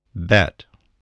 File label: "bet", English